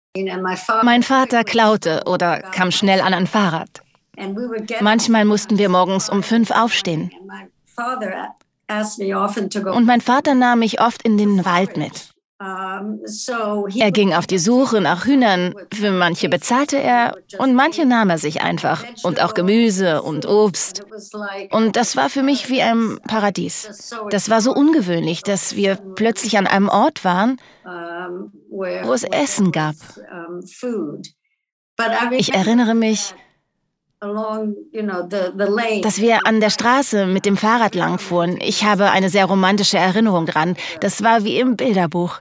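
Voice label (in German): Voice-over Medienstation; VerlTransport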